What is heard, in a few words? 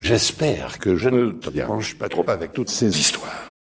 synth; Chirac rushes